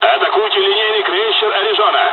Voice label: first wave ships, dialogs russian; War Thunder

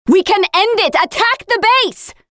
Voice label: Attack Base, Voiceovers; Project Argo

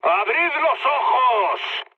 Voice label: player friendly fire, mood low, speech

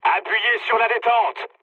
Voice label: attack position mood low, speech fr; War Thunder

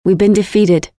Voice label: Lose, Voiceovers; Project Argo

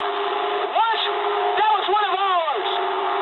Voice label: eng player killed ally mood low, speech eng

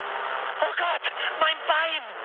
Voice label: damaged pilot, mood med, speech ge; War Thunder

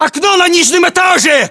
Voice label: location first floor window, ru; localized english

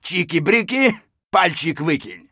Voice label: scav mutter, voice; Escape from Tarkov; scav1

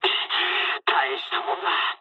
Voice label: jp trick, speech jp

